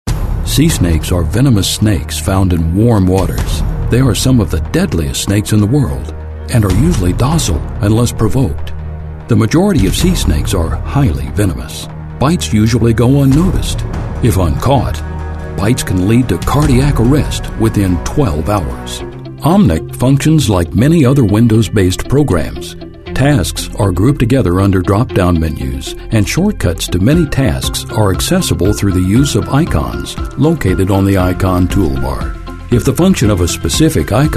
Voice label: english american voice over male narrator